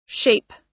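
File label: "shape", US English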